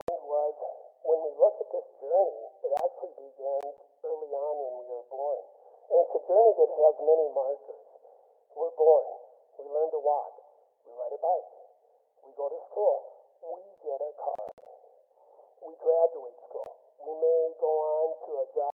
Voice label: Muffled Talking